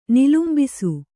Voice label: "ನಿಲುಂಬಿಸು", kannada

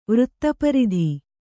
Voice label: "ವೃತ್ತಪರಿಧಿ", kannada

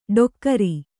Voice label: "ಡೊಕ್ಕರಿ", Kannada

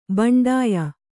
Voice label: "ಬಂಡಾಯ", Kannada

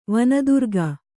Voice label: "ವನದುರ್ಗೆ", kannada

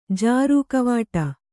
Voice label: "ಜಾರು ಕವಾಟ", Kannada

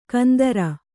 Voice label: "ಕುಂದ್ರಿ", Kannada

